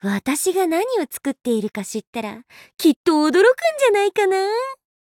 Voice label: alchemist touch, ja

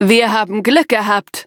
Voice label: level up, de

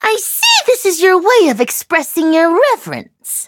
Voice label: levelup, en; cookie run

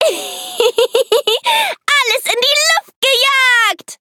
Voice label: victory, de; Cookie Run

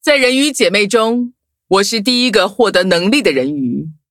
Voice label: loading zh-hant; Cookie Run

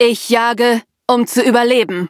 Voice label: battle, de; cookie run

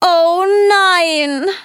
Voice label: defeat, de; Cookie Run

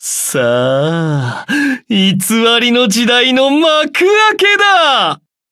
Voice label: upgrade, ja; Cookie Run

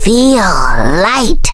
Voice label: level up, en; Cookie Run

